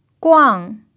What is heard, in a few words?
"guang4", pinyin